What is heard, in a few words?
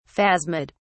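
"phasmid", British English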